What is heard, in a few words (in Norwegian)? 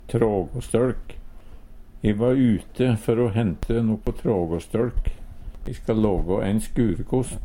"trågåstøLk", Numedalsmål